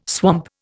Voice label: "swamp", Indian

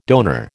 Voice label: "donor", American

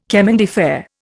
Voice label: "chemin de fer", Indian

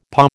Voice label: "paw", American

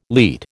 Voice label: "leat", American

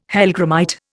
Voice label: "hellgrammite", Indian

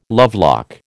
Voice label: "lovelock", American

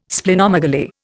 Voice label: "Splenomegaly", Indian

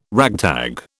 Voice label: "ragtag", British

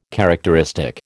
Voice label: "characteristic", American

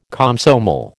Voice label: "Komsomol", American

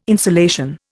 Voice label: "insolation", Indian

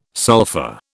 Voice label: "sulfa", British

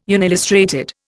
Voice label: "unillustrated", Indian

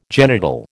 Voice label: "genital", American

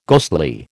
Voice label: "ghostly", American

